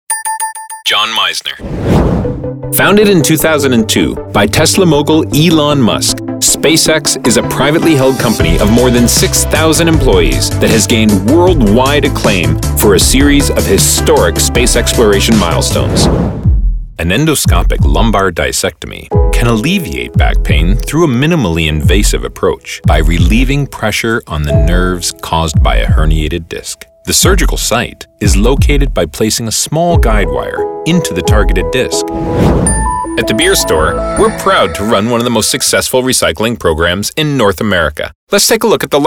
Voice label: Narration